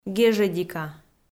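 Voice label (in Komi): "гежӧдика", Komi, female; audio dict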